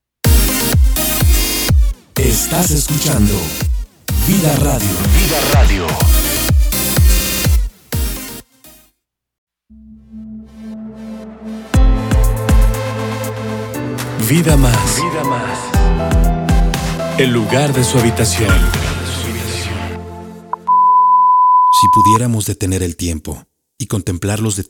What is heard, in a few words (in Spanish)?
Audio Comercial y Locucion